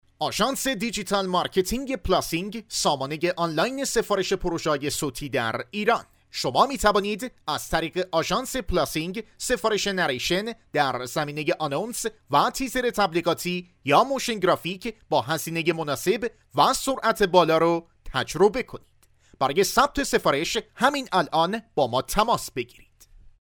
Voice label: narrator